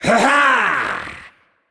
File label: Male Naga Attack